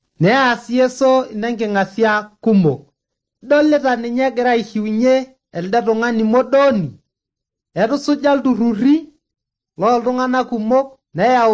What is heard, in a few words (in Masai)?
maasai bsv nt drama; good news; bible